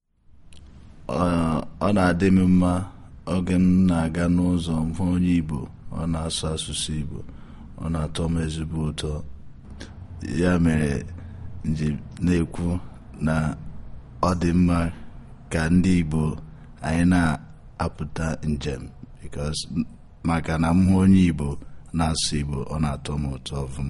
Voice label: Igbo